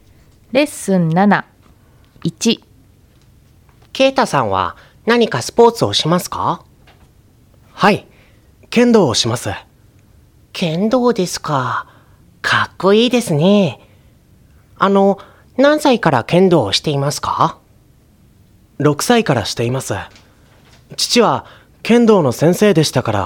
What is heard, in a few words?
Conversation